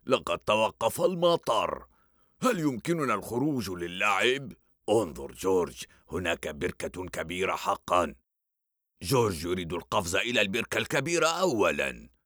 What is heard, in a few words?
Cartoon